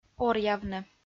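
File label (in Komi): "оръявны", Komi, female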